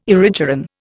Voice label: "erigeron", Indian